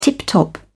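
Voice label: "tip-top", British English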